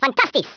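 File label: "Excellent", Afrikaans accent; Speech; International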